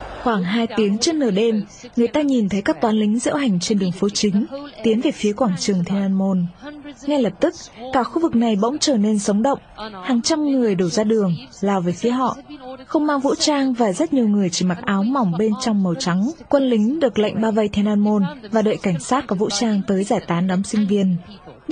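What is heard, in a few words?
Vietnamese, General; GoLocalise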